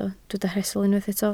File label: "rhestl", Welsh